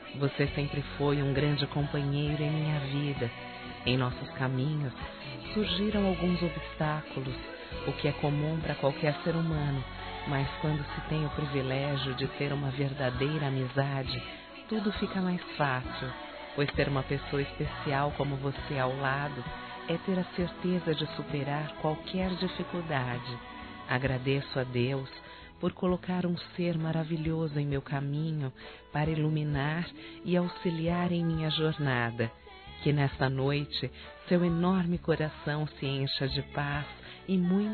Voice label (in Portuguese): NATAL P AMIGA, masc; Telemensagens; Natal e Ano novo